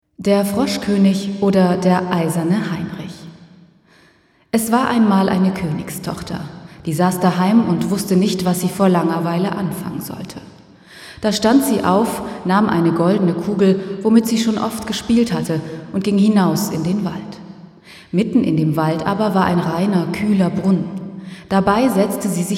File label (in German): Sprecheraufnahme, Faltungshall, Konzertsaal-Simulation; Fallbeispiel Konzertsaal-Simulation; RIA-Faltung; stereo